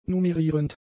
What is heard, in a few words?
"numerierend", German; adjectives